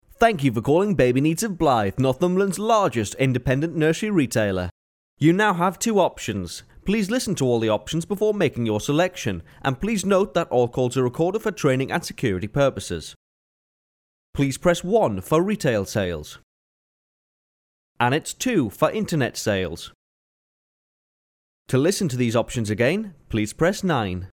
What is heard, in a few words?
IVR baby needs intro